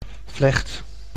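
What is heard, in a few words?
"vlecht", Dutch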